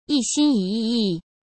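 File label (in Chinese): "一心一意", Mandarin Chinese; chinese flashcards; hsk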